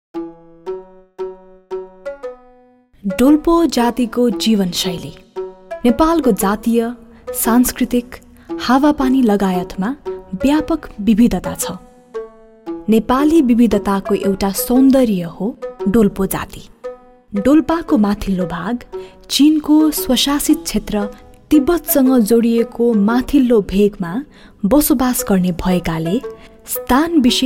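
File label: Narration, Nepali; GoLocalise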